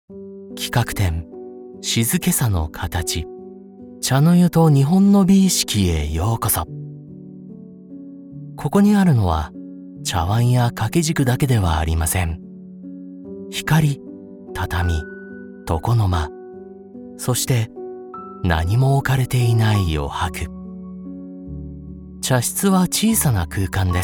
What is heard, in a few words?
japanese audio guides